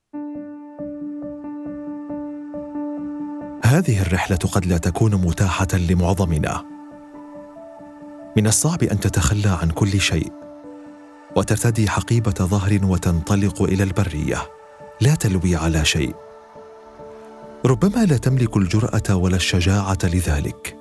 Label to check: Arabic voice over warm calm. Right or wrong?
Right